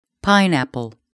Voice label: "pineapple", English